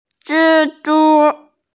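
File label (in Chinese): "zhi1zhu1", Mandarin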